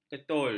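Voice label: "ketul", Malay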